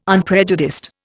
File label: "unprejudiced", Indian